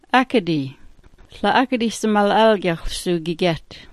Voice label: "akadi", smalgyax

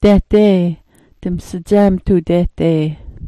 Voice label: "dahdee", smalgyax

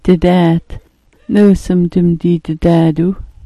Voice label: "didaat", smalgyax

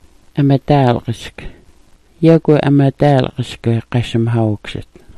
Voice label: "amadaalgask", smalgyax

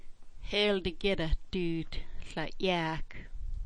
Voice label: "dUU", smalgyax

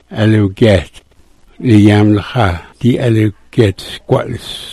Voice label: "alugyet", smalgyax

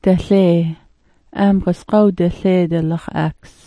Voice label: "dalee", smalgyax

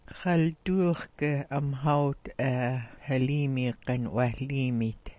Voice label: "'wahliimi", smalgyax

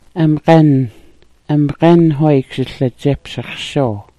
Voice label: "amgan", smalgyax

